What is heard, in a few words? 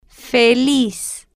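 "feliz", Spanish, slow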